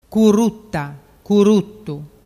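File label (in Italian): "curruttattu", Vernazzese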